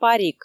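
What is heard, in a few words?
"парик", Komi, female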